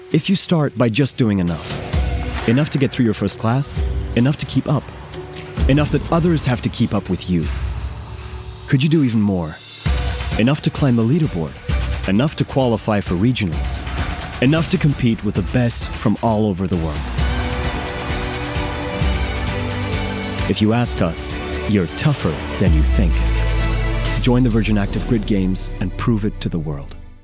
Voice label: American English Showcase

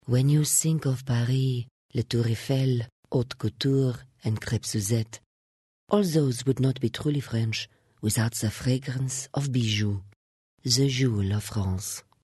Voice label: English European French Accent Softsell

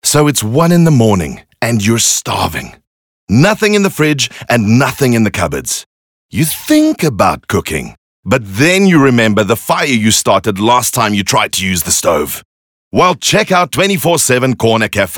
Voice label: English Conversational

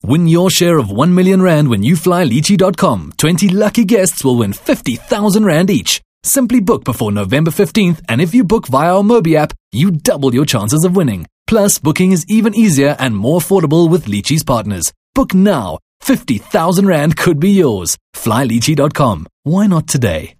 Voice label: English Hard Sell

